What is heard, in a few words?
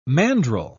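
"mandrel", British English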